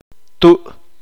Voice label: "seno", Uspanteko

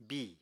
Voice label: "bìi", Apatani

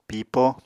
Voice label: "pipo", Apatani

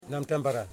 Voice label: "Foot", Yimas

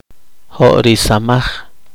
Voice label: poqomchi; horneado

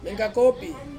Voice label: "mengkakopi", Ansus; hot

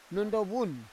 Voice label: "nondovun", Roon

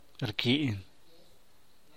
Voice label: "rsaclaas", San Mateo Macuilxochitl Zapotec; need; necesitar

